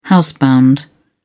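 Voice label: "housebound", British English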